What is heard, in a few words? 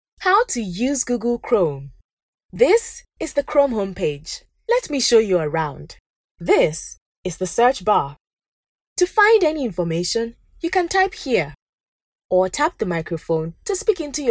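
African English, female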